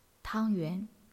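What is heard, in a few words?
"tang1yuan2", Chinese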